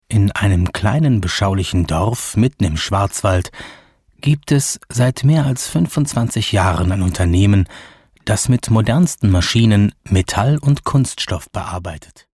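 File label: German, male, narration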